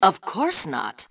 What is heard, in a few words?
Team No, Female; T2 Female 02